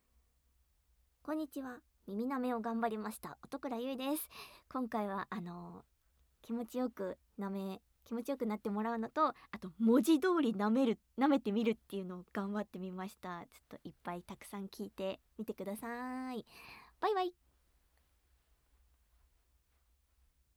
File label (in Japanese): フリートーク, ASMR; 耳舐め&吐息ぐっぽり10時間♪